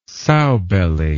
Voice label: "sowbelly", English